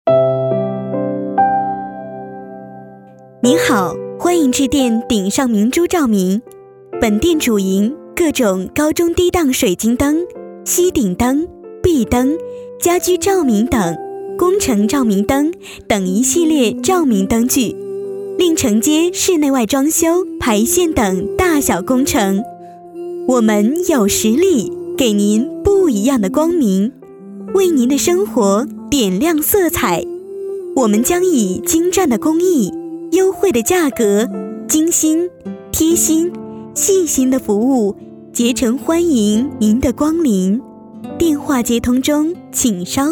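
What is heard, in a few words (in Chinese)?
彩铃照明; 顶上明珠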